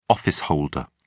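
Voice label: "office holder", British English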